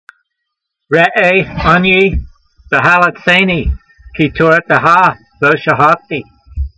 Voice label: voice, Psalm 119:153, hebrew language; Transliteration: re'ayh-on'yee ve'haletsaynee, kee-torateha lo shahahtee